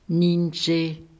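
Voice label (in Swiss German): "niinzeh", Alsatian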